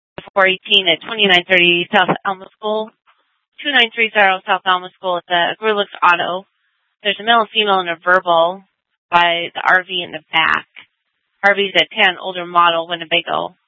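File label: police dispatch, scanner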